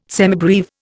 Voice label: "semibreve", Indian